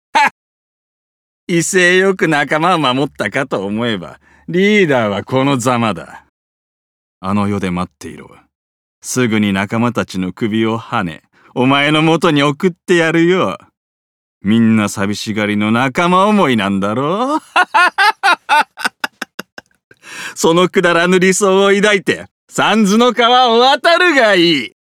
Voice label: ギャングどや語り30代

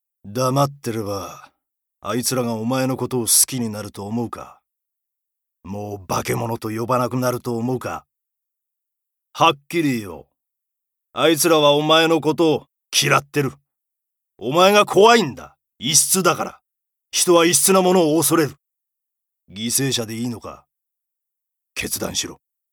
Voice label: 奮起させる

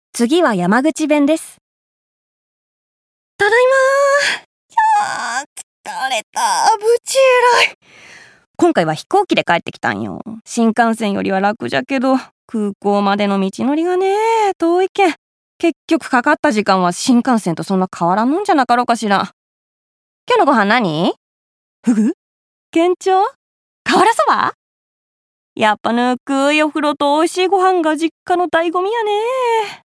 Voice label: 方言

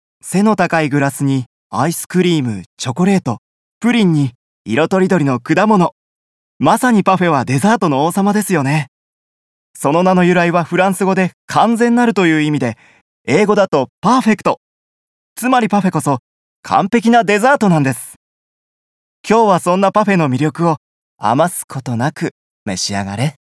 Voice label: パフェ特集ナレ

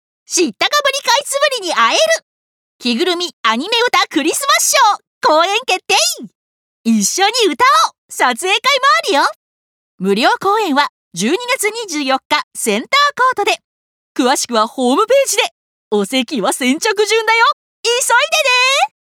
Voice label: イベントCM

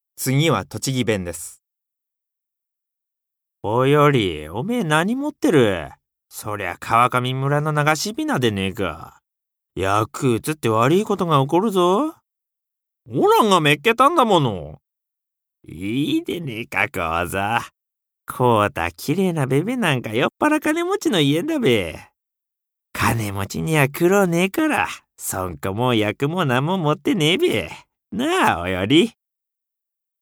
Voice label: 方言

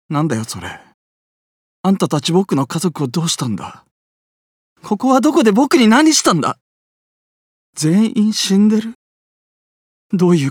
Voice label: 怯え〜怒り20代

